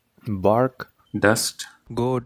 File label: Prevoicing bark dust goat